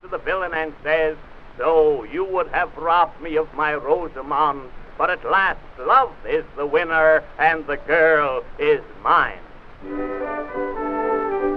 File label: monologue, vaudevil; drama; rehearsing the orchestra; vaudeville special